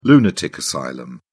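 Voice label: "lunatic asylum", British English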